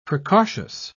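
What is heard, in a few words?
"precautious", British English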